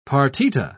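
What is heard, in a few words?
"partita", British English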